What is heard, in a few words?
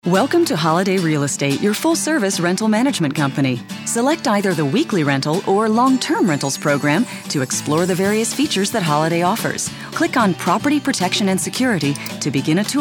30s Female Narration